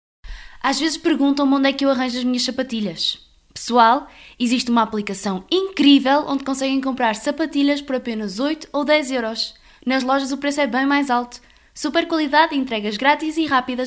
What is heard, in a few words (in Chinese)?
葡萄牙女声; 外籍